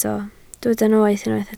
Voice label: "noeth", Welsh

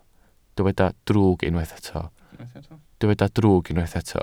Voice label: "drwg", Welsh

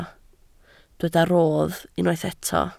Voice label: "rodd", Welsh

